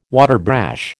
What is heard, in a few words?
"waterbrash", American